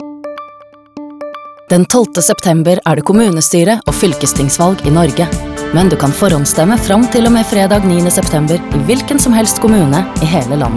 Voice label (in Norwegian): VO Mix Radio; Forhaandstemme; kommunevalg; valgportalen